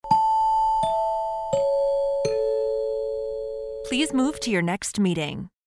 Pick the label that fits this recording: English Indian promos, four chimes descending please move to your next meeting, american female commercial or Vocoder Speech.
four chimes descending please move to your next meeting